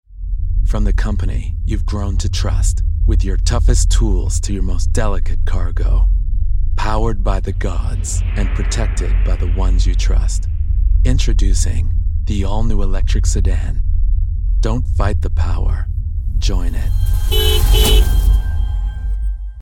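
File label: US English Commercial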